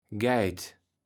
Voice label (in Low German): "Geit", Platt